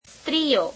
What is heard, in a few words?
gujarati women